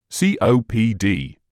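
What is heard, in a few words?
"COPD", British English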